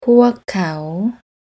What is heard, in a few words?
"Puak Kao", Thai; desire requesting